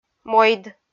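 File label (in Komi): "мойд", Komi, female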